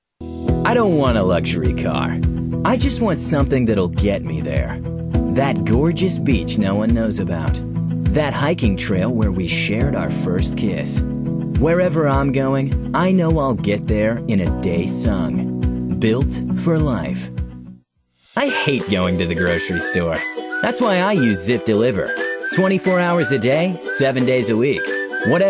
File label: USA